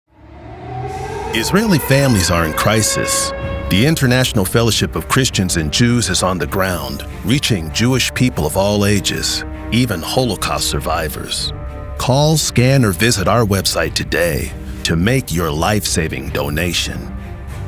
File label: Commercial Call To Action Spot